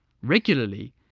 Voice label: "regularly", spelling voice